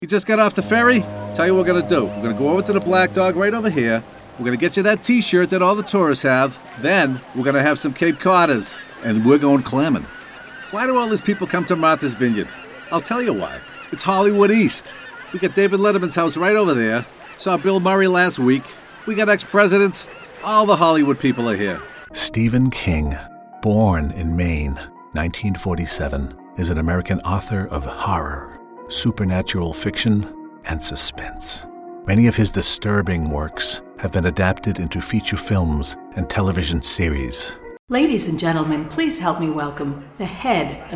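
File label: NARRATION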